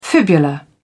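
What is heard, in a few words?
"fibula", English, British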